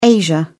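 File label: "asia", English, British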